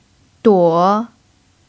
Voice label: "duo3", Mandarin pinyin